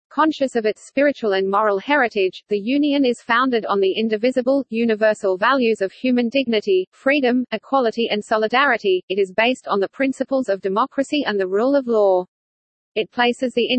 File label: English (AU); Charlotte; en-AU-Standard-A